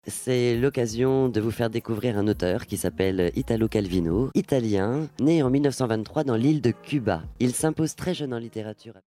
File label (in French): Radio Emission; Radio Motus; Italo calvino